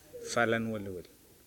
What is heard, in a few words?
thorn, Namolukese